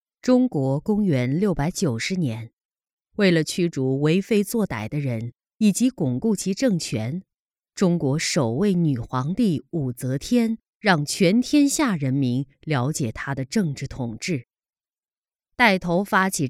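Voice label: Chinese mandarin, female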